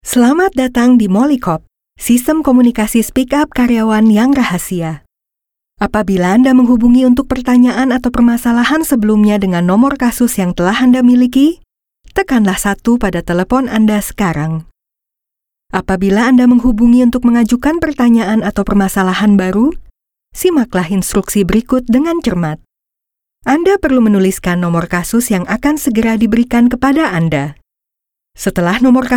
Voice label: Bahasa Indonesia Corporate Script Clean VO, Bahasa Indonesia Female